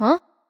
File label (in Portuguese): Confirmaçao neutra